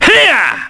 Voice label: Vox, kr; Fluss; kingsraid